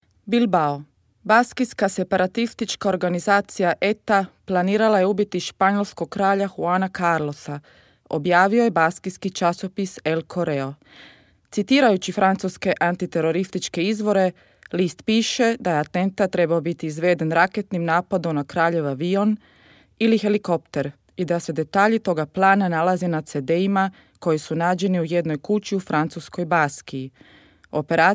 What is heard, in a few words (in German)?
Kroatien W